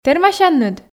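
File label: "тэрмасянныд", Komi, female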